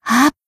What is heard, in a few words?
Battle Shout; Hina